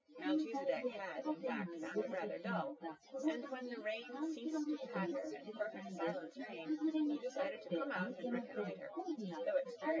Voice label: female synthconv 130ms 5cm; SiSEC08; SiSEC underdetermined

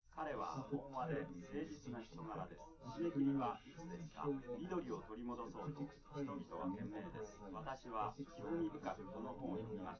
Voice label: male live rec

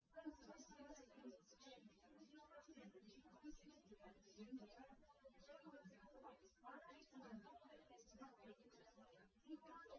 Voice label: test female liverec 250ms 5cm sim; SiSEC08; SiSEC underdetermined

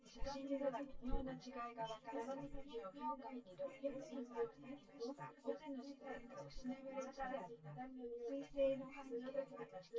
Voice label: female synth conv sim; SiSEC10; underdetermined

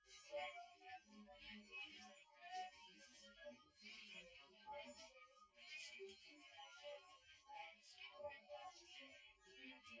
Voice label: female synth conv 250 ms 5 cm

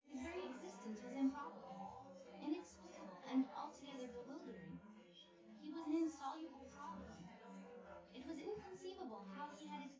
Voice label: female synthconv

